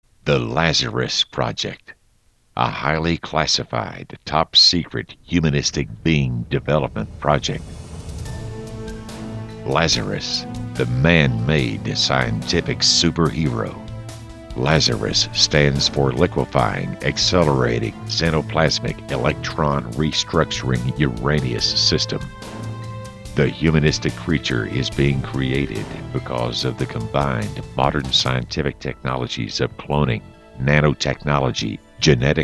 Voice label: Audio Voice Over; L.A.Z.E.R.U.S.